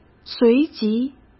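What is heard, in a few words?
"随即", Mandarin Chinese; hsk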